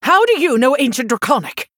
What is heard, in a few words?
Female Human